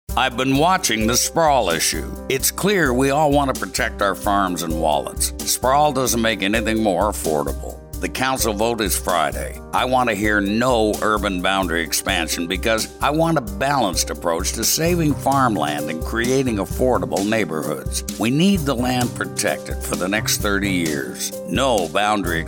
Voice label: radio ad